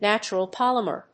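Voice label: "natural polymer", English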